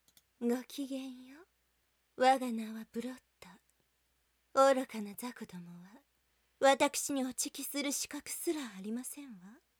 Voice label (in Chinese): 外籍日语女 角色 大小姐风少御